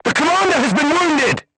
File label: voice message commander wounded, crew tanks portuguese